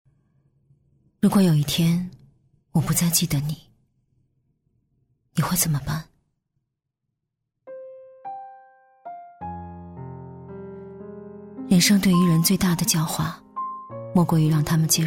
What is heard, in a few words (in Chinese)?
国语 女声 微电影旁白 质朴温馨磁性; 微电影旁白-【自然讲述-伤感走心、坦率真诚】30岁女性情感自白 积极向上|调性走心|亲切甜美|感人煽情|素人